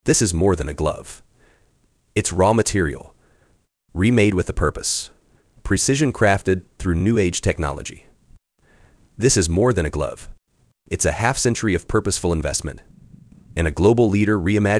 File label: Corporate Video; SHOWA Corporate Video